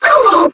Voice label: pain, metropolice; npc